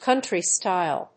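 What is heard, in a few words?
"country-style", English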